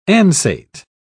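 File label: "ansate", British English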